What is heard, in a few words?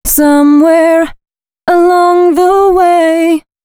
female a 140, short hooks, Dance Voice